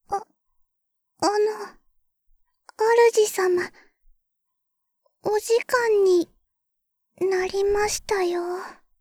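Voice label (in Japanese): タイマー設定, システムボイス; ベル・カールステッド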